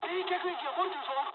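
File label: damaged water mood high, speech japanese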